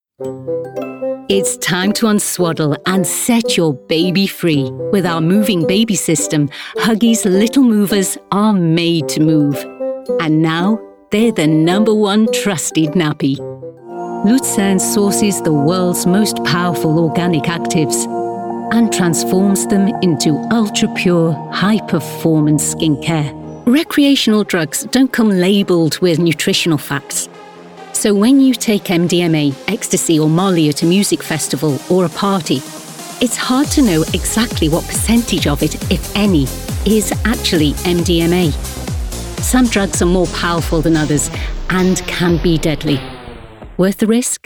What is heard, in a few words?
British Female Voice Over, Commercial; Actor; Commercial Reel